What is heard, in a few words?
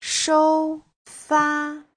"shou1fa1", Chinese